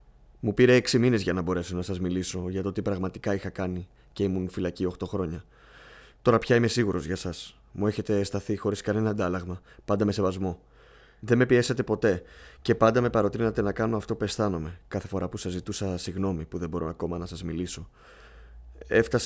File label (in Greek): Ηλικιωμένος 3ης Ηλικίας